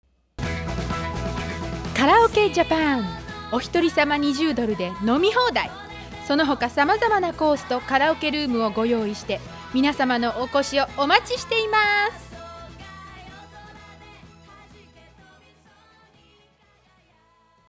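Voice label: japanese, voiceovers other languages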